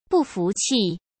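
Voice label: "不服气", Mandarin Chinese; chinese flashcards; hsk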